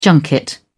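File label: "junket", British English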